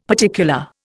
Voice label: "particular", Indian; Dictionary

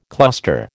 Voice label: "cluster", American

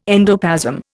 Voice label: "endoplasm", Indian

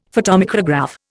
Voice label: "photomicrograph", Indian; Dictionary

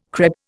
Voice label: "crÈpe", Indian

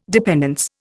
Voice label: "dependence", Indian

